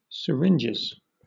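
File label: "syringes", British English; pronunciation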